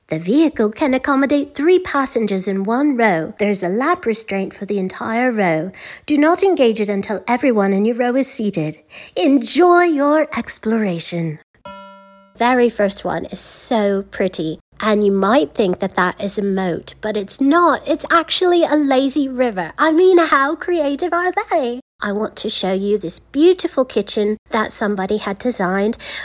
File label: British Accent